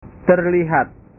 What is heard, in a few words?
"terlihat", bahasa